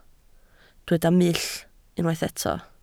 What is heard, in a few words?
"mull", Welsh